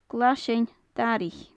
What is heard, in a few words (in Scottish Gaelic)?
"glaisean daraich", gaelic; Faclan Nàdair